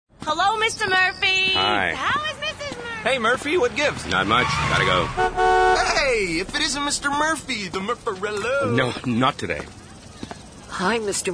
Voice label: Voice, women